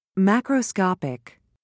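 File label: "macroscopic", British English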